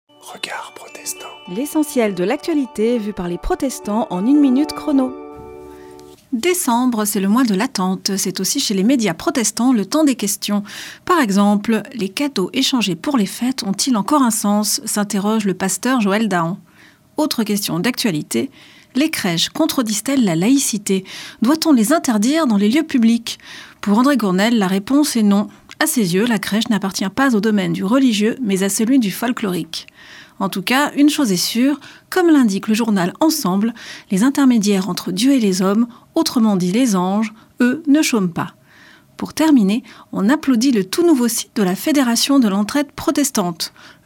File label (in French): Spot radio; Regards protestants